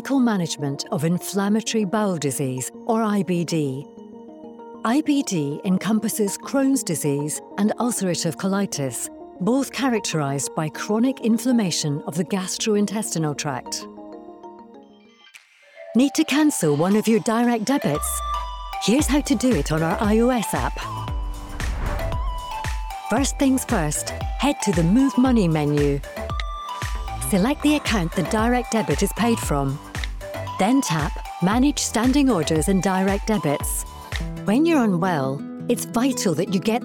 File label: Scottish voice over E-Learning